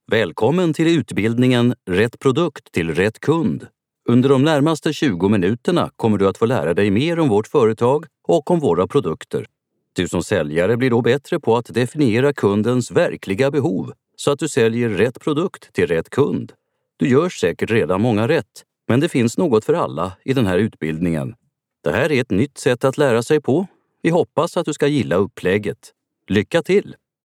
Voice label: swedish e-learning

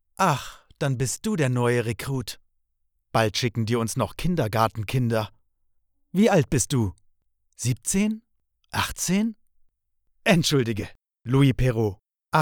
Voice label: german audio guides

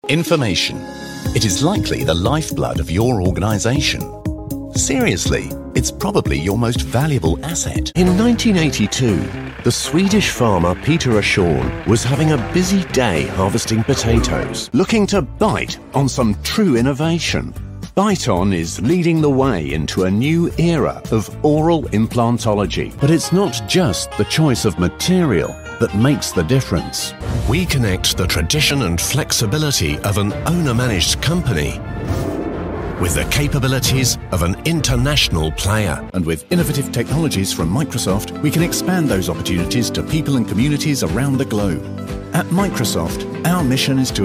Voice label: english british corporate videos

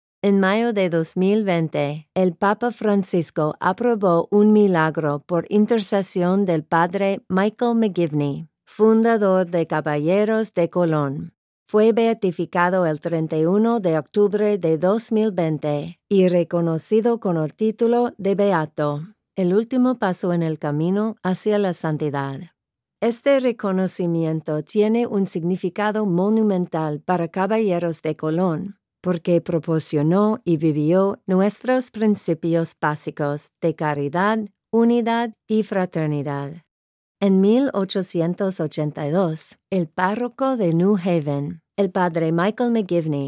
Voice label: Spanish commercial

